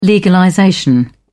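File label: "legalization", British English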